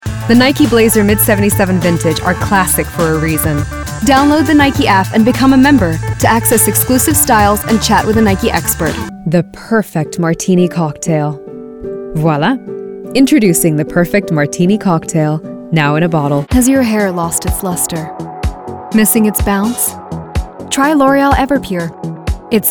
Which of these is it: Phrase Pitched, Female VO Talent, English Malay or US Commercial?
US Commercial